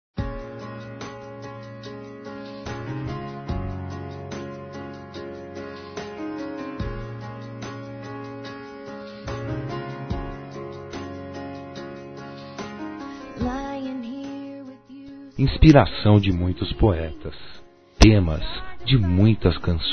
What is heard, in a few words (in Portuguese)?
Dia Internacional da Mulher Romântica Masculino; Telemensagens 2017